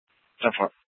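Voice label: Scottsdale PD North Dispatch; Nth Dispatch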